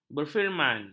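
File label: "berfirman", Malay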